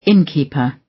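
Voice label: "innkeeper", British English; Time, history; Thời gian, lịch sử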